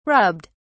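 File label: "rubbed", British English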